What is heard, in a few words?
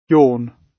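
"yawn", British English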